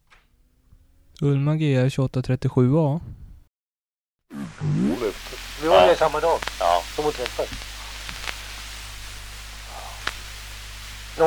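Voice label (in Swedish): Valbo Gästrikland, dialekter; Folkminnen; Svenska sagor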